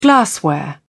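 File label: "glassware", British English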